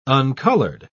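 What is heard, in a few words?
"uncoloured", British English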